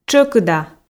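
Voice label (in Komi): "тшӧкыда", female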